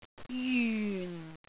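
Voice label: "jyun4", Cantonese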